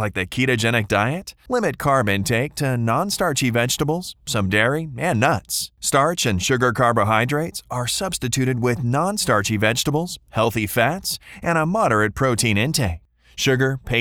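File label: Weight Loss Voiceover; keto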